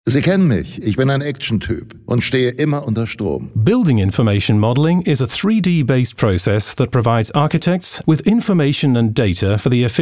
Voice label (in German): Sprecher demo mix, funkspots